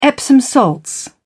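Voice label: "epsom salts", British English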